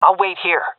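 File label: waiting here, female; vo; Counter Strike Model Pack; CS:GO; seal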